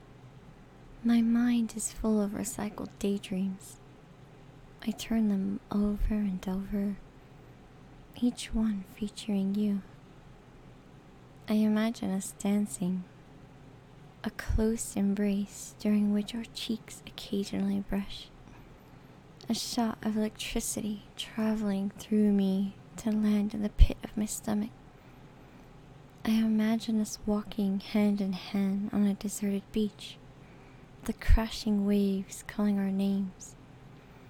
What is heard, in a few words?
Poem; Musings Poem